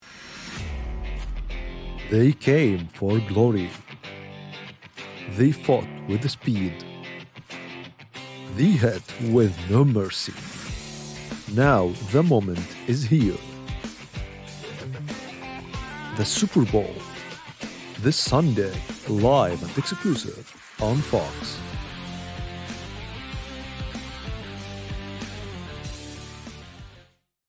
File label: announcer commercial; super bowl